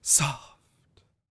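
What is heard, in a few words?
female spoken, R&B Dance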